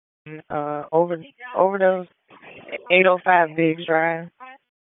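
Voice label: Police Southwest District Dispatch, p25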